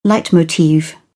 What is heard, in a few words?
"leitmotiv", British; oʊ - əʊ; English